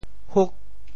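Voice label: "huk8", Teochew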